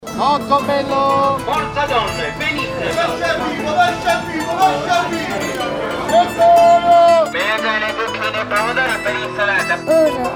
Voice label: People, Italy